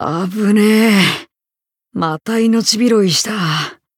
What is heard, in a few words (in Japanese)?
win barely, jp